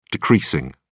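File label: "decreasing", British English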